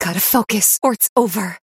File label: pain low health warning; female